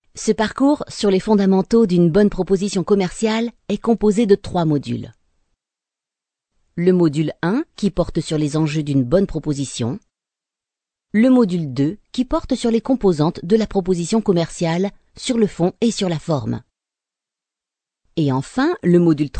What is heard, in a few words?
french e-learning